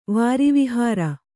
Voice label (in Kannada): "ವಾರಿವಿಹಾರ", Kannada